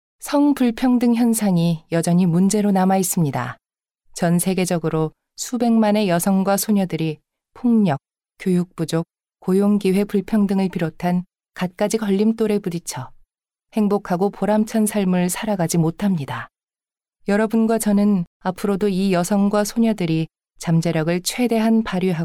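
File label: KR F narration, Korean, female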